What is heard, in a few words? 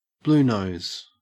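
"bluenose", English, Australian accent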